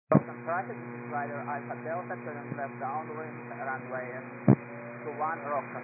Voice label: 122 725MHz, scanner radio